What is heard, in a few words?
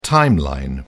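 "timeline", British English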